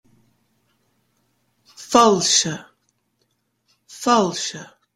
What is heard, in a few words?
failte, Gaelic